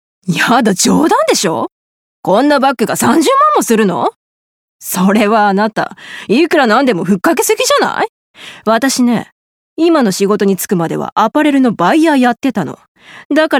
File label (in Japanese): ショップで不満爆発